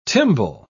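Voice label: "timbal", British English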